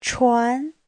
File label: "chuan2", chinese; 船; (danh từ) thuyền, tàu; Giáo trình chuẩn HSK3